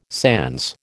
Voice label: "sans", American